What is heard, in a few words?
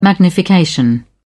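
"magnification", British English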